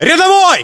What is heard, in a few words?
rank private, ru; localized english